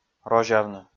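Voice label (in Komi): "розявны", Komi, male; kpv audio dict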